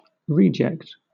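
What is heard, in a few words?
"reject", British English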